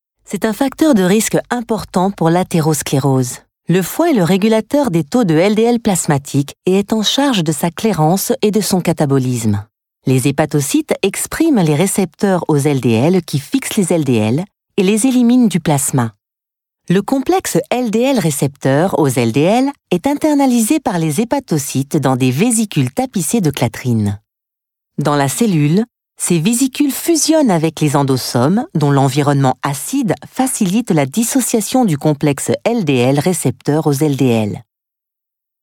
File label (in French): voix femme